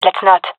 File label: disagree, gendarmerie fem epic; vo; agents